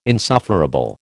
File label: "insufferable", American